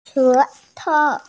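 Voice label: "she2tou5", Mandarin Chinese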